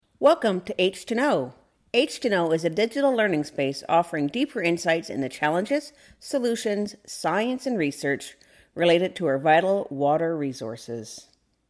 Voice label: narration welcome